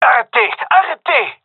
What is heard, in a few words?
taking fire friendly, male; vo